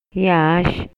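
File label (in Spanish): Maya, Yucatán, male; CANTIDAD; INALI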